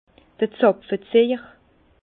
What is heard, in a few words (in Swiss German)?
"zapfeziejer", Bas Rhin; vin; tire bouchon